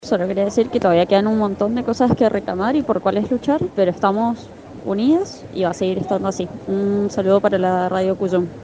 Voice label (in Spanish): testimonio; marcha 8m